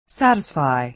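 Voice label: "satisfy", American English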